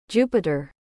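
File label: "Jupiter", US English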